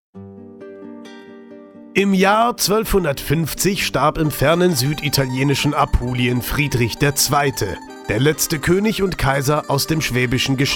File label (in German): Audioguide, deutsch maenner; sprecher